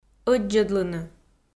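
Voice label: "ӧддзӧдлыны", Komi-Zyrian, female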